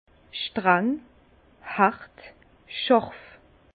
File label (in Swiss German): "strang", Bas Rhin; vin; austère